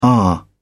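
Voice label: "are", English, British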